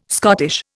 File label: "Scottish", Indian